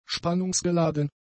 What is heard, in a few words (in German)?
"spannungsgeladen", german; adjectives